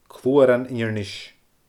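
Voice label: "Cluaran Inbhir Nis", Scottish Gaelic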